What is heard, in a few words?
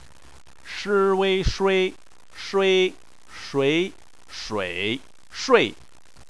"shui", chinese